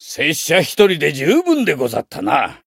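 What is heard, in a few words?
win, Japanese; cyrus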